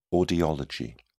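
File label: "audiology", British English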